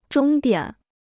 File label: "终点", Chinese